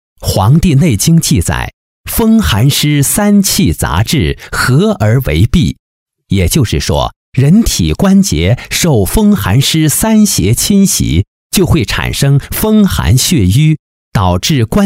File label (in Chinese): 男; 风寒膏